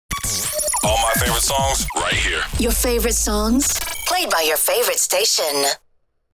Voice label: Female radio voice dj sweeper your favorite songs

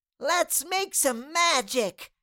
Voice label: Cartoon little child voice lets make some magic

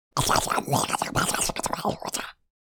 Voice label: Alien creature talking

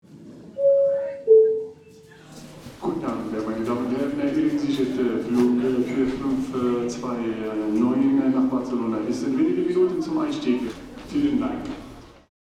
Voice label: Airport announcement flight announcements

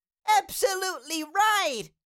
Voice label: Cartoon little child voice; absolutely right

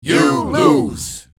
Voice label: Crowd shouts you lose; game over

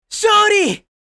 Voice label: Victory anime boy character

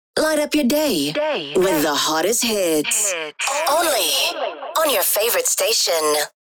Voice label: Vocals radio voice sweeper light up your day

